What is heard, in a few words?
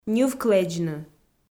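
"ньывклэдзны", Komi, female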